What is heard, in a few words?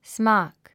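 "smock", English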